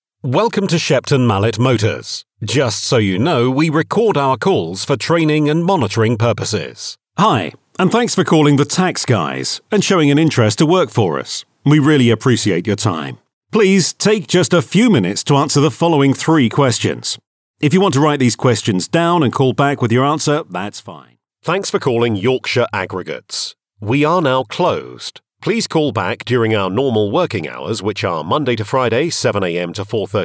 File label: Male, IVR; iNarrator